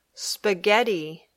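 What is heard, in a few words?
"spaghetti", English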